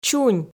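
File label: "чунь" Komi, female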